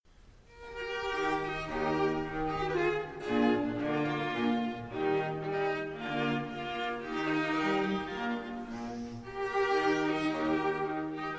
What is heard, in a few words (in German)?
Hörbuch; pleyel